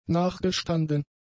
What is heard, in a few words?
"nachgestanden", German; adjectives